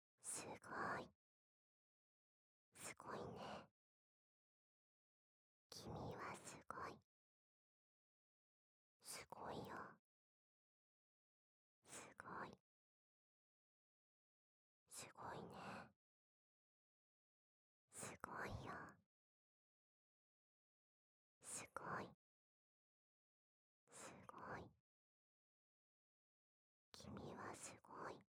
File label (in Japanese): 囁き 右耳 君はすごいよ